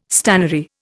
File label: "stannary", Indian